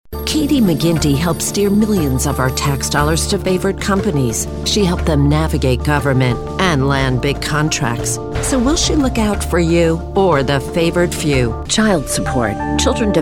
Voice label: political women